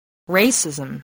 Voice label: "racism", english